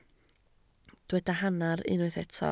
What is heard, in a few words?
"hanner", Welsh